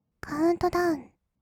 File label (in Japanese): カウントダウン, kawaii voice; 音ゲー